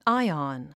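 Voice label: "ion", English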